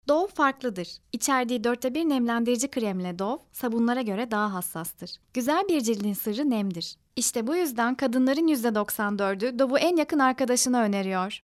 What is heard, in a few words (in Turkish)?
reklam